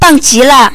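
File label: awesome, Finnish; gcompris-data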